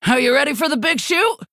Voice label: Female Orc Emote New Game